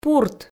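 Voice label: "пурт", Komi, female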